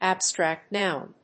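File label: "abstract noun", English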